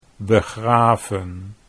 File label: "begraven", Dutch